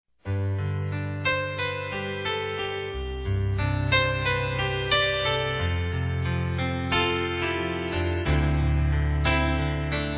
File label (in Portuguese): Inicio de Namoro Masculino; Telemensagens; Telemensagens 2017